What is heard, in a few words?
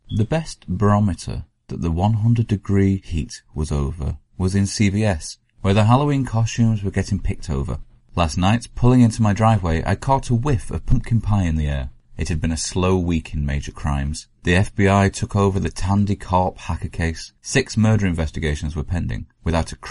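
English M Showreel Northern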